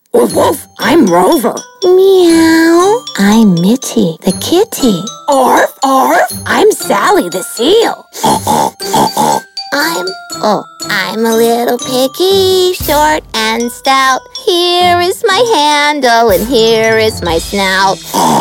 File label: character